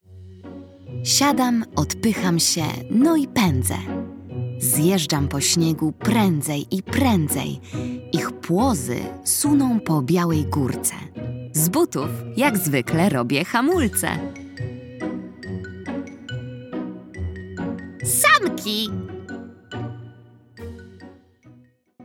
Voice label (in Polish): narracja; czasopismo mis; zima; zagadka